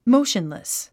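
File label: "motionless", English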